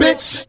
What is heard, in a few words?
"bitch", expletives